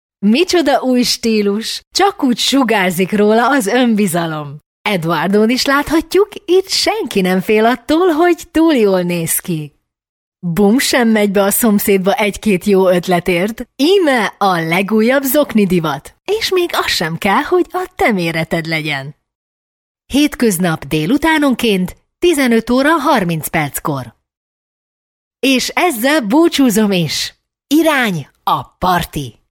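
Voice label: Hungarian female AD; voice over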